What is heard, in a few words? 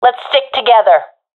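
request stick together, professional female; vo; Counter Strike Model Pack; CS:GO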